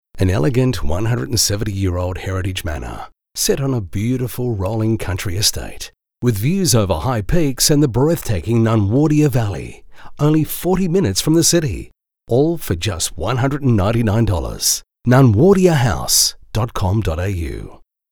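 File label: Smooth Classy, male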